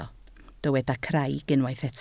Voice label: "craig", Welsh